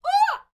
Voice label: MEX FEMALE, World Voices